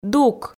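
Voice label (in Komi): "дук", Komi, female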